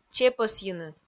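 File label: "чепӧсйыны", Komi, female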